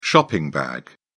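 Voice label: "shopping bag", British English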